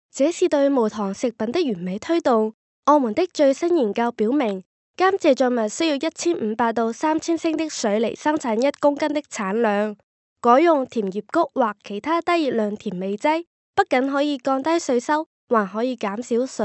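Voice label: Chinese Cantonese, female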